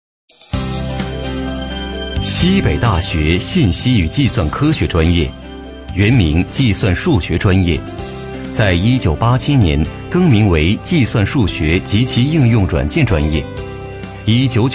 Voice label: 男6号专题 深沉大气

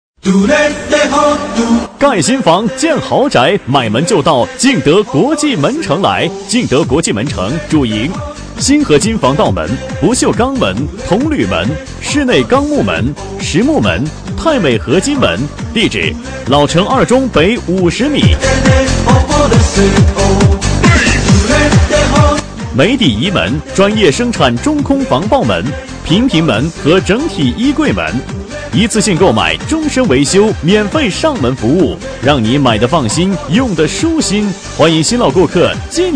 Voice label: 男 促销, 促销男配; 敬德国际门城

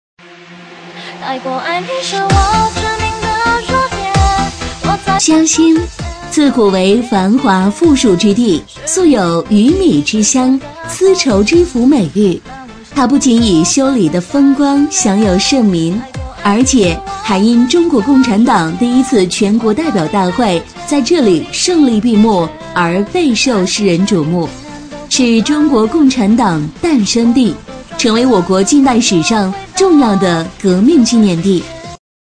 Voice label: 女 解说 游历; 嘉兴

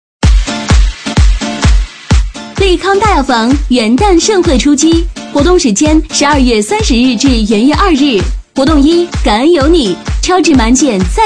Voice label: 女 促销 大药房, 促销女配; 利康大药房

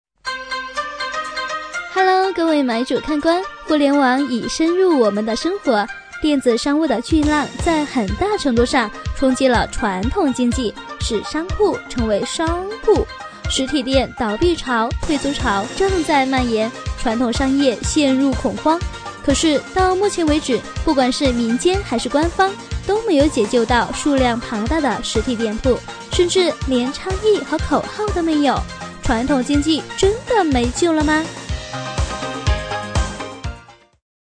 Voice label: 轻快; 飞碟说

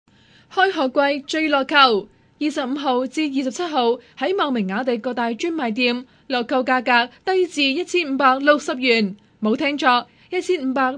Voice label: 粤语女; 雅迪

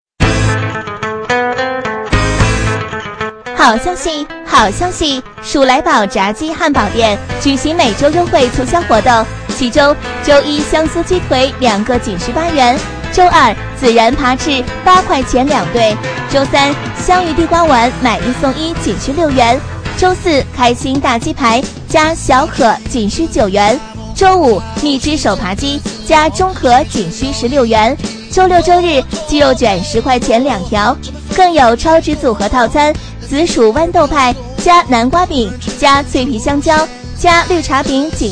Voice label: 女 促销 炸鸡汉堡店; 薯莱堡炸鸡汉堡店

